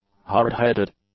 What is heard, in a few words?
"hard-headed", English; adjectives